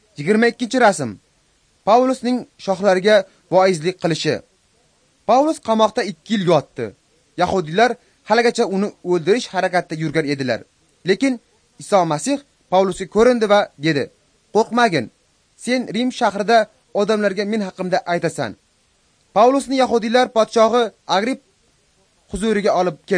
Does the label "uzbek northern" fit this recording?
Yes